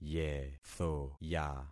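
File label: "yethoya", concatenated syllables; Affixing experiment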